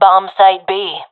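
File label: professional female; Source Model Packs; Counter Strike Model Pack; CS2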